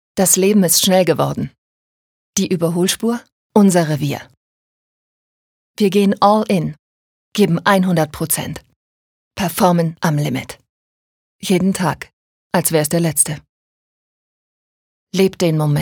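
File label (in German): Werbung Cool; Stoke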